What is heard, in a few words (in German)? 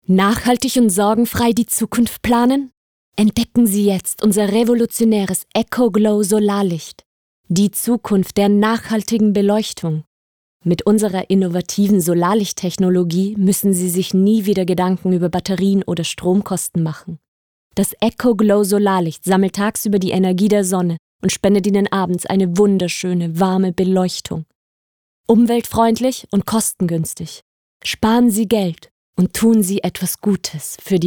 Werbung